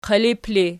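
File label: "qilip" ergative, Archi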